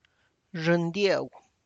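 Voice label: tlacochahuaya, Zapotec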